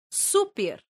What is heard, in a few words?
"super", esperanto